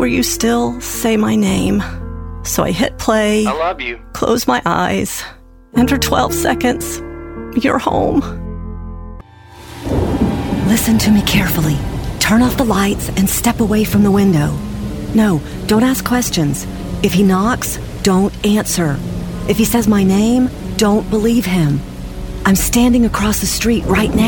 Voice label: Audio Drama, Female Voice Over